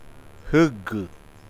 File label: uitoto egg huevo